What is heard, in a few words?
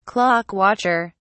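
"clock watcher", British English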